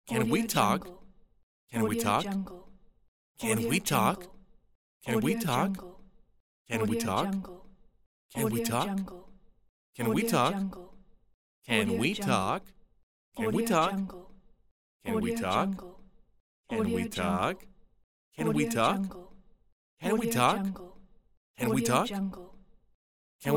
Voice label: male asking can we talk